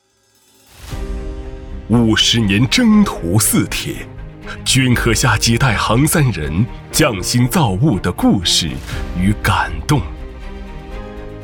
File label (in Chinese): 男 企业宣传片; 中交二航局企业宣传片